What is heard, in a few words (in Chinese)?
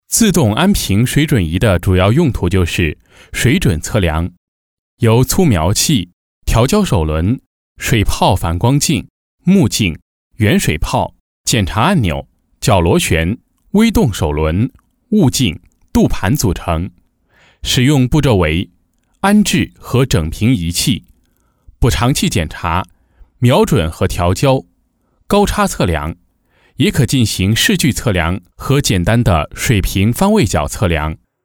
工程汇报课件; 水准仪